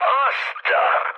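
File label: Hungarian trick mood low, speech; War Thunder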